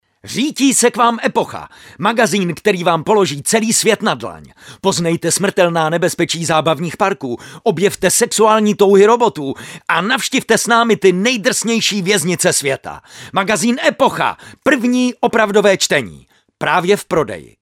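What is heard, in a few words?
Male Czech commercial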